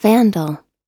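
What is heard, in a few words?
"vandal", American English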